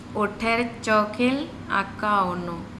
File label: he is sitting in front of me o THEr cOkel akka onno, Andamanese